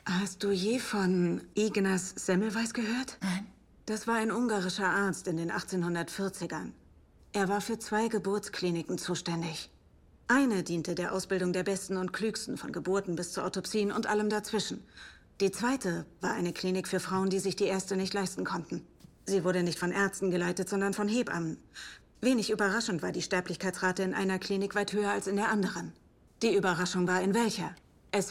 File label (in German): sachlich; Midnight Mass; der Hörverlag / hr2 Kultur - Der geheimnisvolle Briefschreiber - Frühe Erzählungen, Anfang